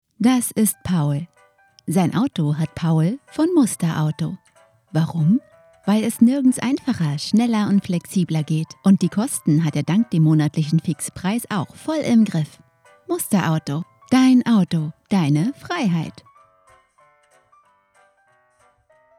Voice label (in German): Werbung - Musterauto, Sprecherin